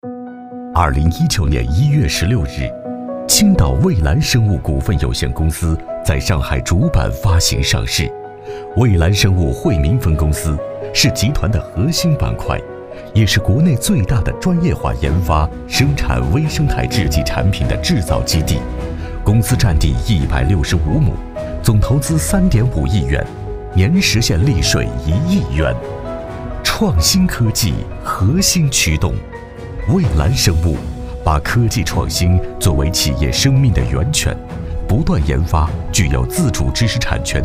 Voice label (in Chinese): 专题 科技感 质感 大气